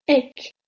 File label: "ik", Proto-Germanic